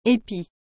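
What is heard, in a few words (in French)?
"épi", French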